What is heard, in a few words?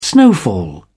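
"snowfall", British English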